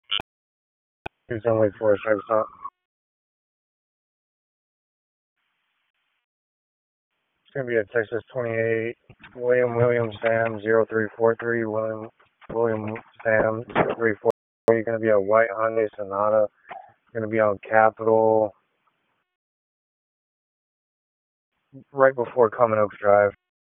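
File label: Wake Forest Police Dispatch, p25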